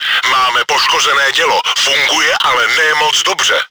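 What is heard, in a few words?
gun damaged, чешской озвучки; Máme poškozené dělo. Funguje, ale ne moc dobře!; Czech; У нас повреждено орудие. Оно работает, но не очень хорошо.; World of Tanks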